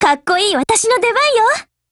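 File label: Japanese, Voice; BLACK ACTION; GF Alarm; SPAS12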